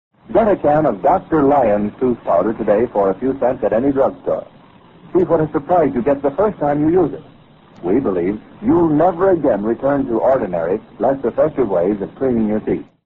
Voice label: commercials, tooth powder; dr lyons

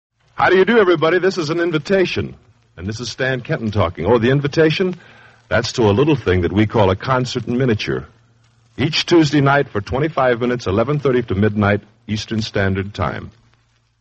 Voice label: promos for concert in miniature

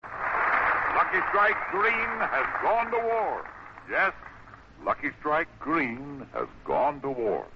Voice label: commercials

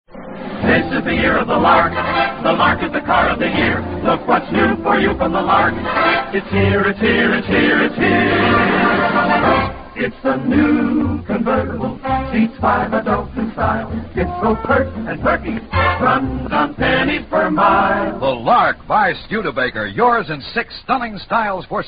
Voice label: vintage commercials; studebaker lark

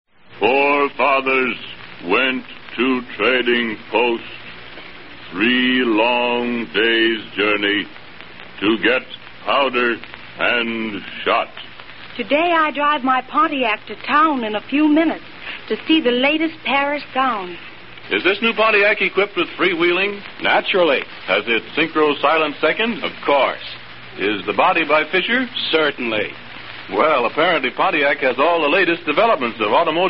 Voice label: vintage commercials; pontiac

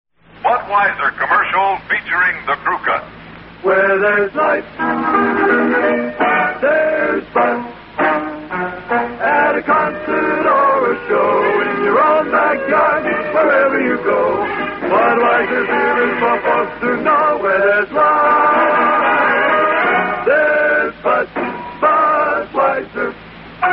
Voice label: vintage commercials; budweiser

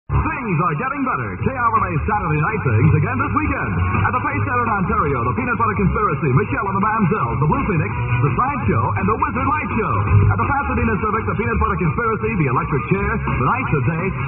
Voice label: concert promo, radio spots; psychedelic promos and radio spots

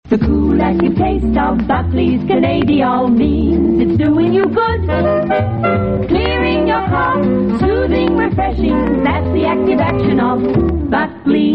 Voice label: australian otr ads; buckleys canadiol

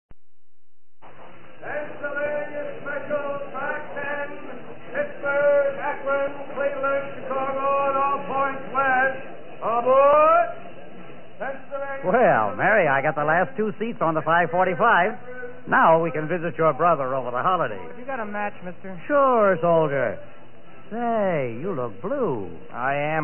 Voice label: psa - is this trip necessary; ww2 promos and commercials

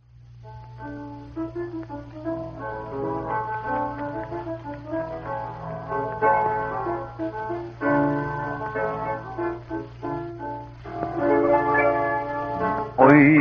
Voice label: parkway cafeteria commercial, yiddish radio; the yiddish radio project

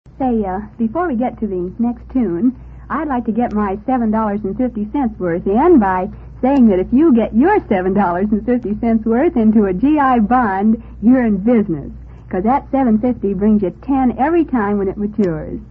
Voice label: commercials; g i jill buy g i bonds

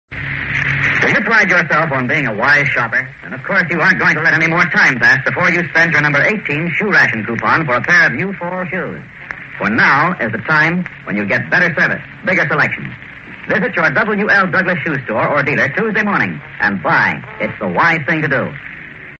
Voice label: commercials shoes on shoe rationing; w l douglas shoes

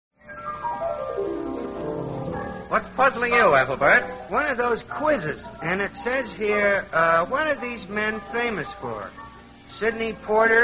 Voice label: vintage commercials; anchor hocking

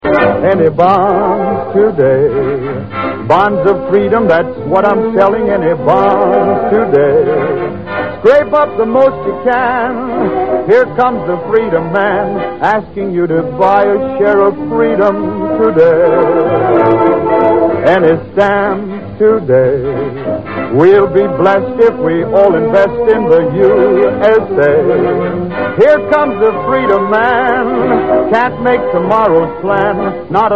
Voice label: commercials

